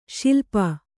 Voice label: "ಶೈಲಪ", kannada

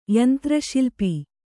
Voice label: "ಯಂತ್ರಶಿಲ್ಪಿ", Kannada

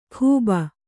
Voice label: "ಖೂಬ", Kannada

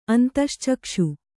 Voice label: "ಅಂತಶ್ಚಕ್ಷು", kannada

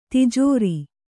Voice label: "ತಿಜೋರಿ", Kannada; tijōri; noun; a treasury.

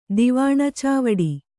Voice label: "ದಿವಾಣಚಾವಡಿ", Kannada

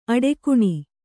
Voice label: "ಅಡಿಗಾಣ್", Kannada

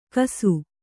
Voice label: "ಕಸು", kannada

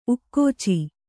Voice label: "ಉಕ್ಕಾಚು", Kannada

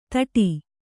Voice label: "ತೊಟ್ಟು", Kannada

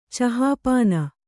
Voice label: "ಚಹಾಪಾನ", Kannada; cahāpāna; noun

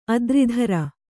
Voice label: "ಅತಿರಾತ್ರ", kannada

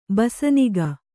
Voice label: "ಬಸನಿಗ", kannada

